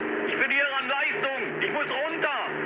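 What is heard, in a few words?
German damaged engine mood high, speech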